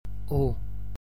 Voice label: closed o, gaelic

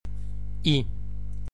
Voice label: unstressed н (Donegal), gaelic

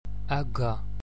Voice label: broad g & gc, gaelic

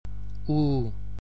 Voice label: stressed ъ, gaelic

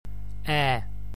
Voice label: stressed б (Donegal), gaelic

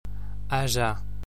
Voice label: slender r (rЖ), gaelic

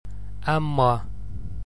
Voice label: broad m & mb, gaelic

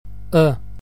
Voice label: unstressed a (schwa), gaelic

